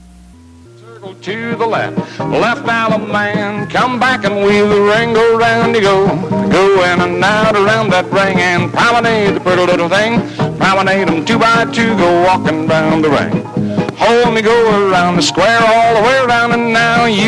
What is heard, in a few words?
commercial